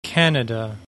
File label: "CANADA", English